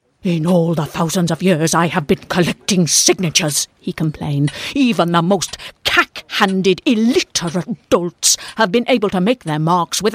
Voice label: dramatic